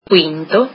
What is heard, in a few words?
fifth, italian